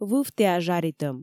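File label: "вывтіа жаритӧм", Komi, female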